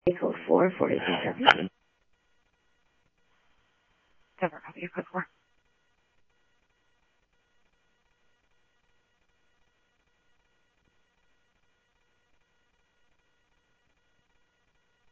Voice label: Interop Mutual Aid, scanner audio